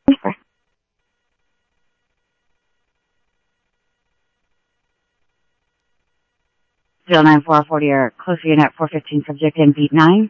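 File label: Sheriff West County, scanner audio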